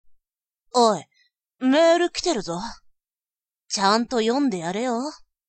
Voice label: boy